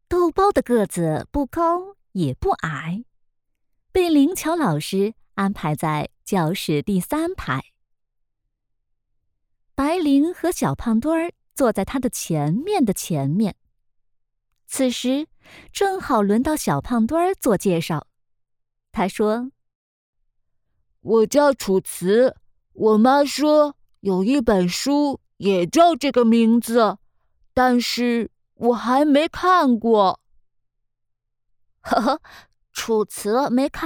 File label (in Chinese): 儿童读物乖巧的小豆包